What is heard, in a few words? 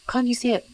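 voice acting, es, female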